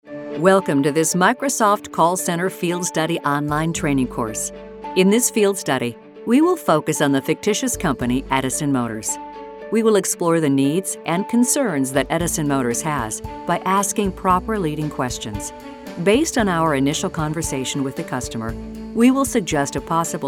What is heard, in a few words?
Instructional eLearning